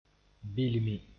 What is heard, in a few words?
"bilmi", moore